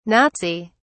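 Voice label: "nazi", British English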